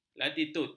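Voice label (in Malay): "latitud", Malay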